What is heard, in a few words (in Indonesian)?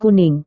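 "kuning", Indonesian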